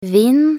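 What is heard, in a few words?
"vind", Norwegian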